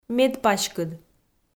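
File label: "медпаськыд", Komi, female; kpv; audio dict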